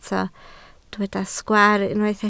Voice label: "sgwaar", Welsh